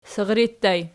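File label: sirri ergative plural, Archi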